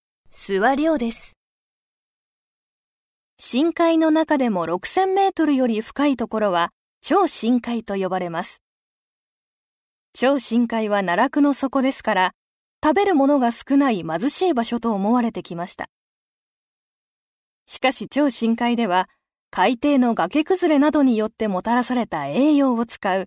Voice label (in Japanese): ナレーション; ナレーター; ボイスサンプル